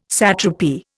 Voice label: "satrapy", Indian